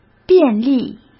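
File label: "便利", Mandarin Chinese; hsk; chinese-flashcards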